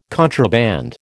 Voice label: "contraband", American

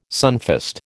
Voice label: "sunfast", American

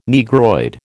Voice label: "Negroid", American

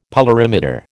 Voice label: "polarimeter", American

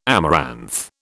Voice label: "amaranth", British

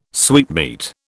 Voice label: "sweetmeat", British

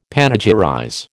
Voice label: "panegyrize", American

